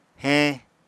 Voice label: "heñ", apatani